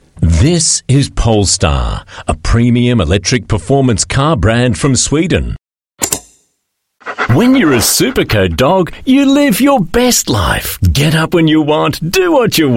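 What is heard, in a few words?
Australian English Voice Reel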